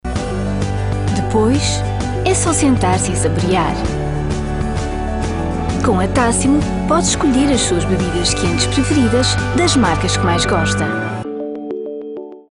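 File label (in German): Portuguese, Fremdtake Dynamisch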